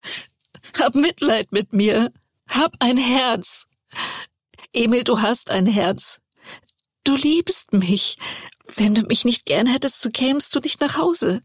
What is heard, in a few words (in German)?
Emotion verzweifelt